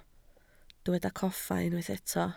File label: "coffa", Welsh